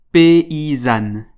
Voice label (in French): "paysanne", French